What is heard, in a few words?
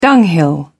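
"dunghill", British English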